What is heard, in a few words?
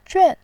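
"juan", Mandarin, fourth tone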